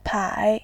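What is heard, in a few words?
"pai", Mandarin, tone 3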